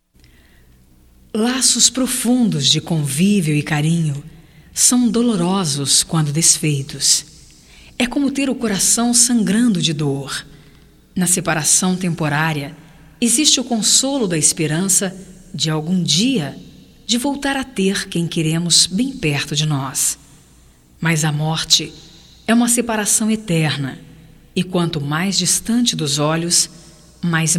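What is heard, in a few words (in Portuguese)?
PESAMES GERAL - female, Telemensagens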